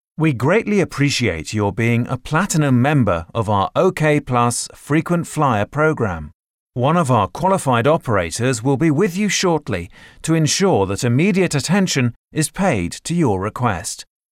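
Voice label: Male English IVR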